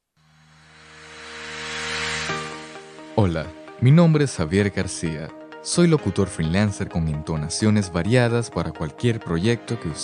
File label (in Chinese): 西班牙语男 科技, 外语; 小语种